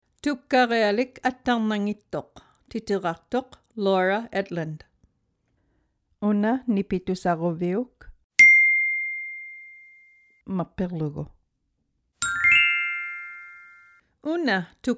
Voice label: audiobook; My Safe Bike